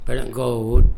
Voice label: "berlenghkowurd" (gravelly)